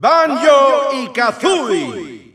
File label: Spanish Announcer; Banjo & Kazooie; SSBU